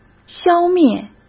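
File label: "消灭", Mandarin Chinese; hsk; chinese-flashcards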